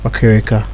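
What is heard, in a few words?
"okirika", Nigerian Pidgin, male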